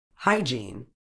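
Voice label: "hygiene", British English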